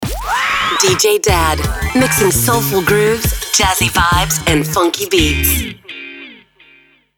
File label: DJ Drop